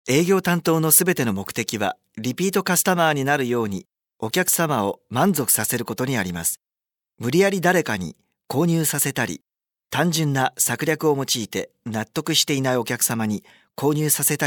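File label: japanese male narration; voice demos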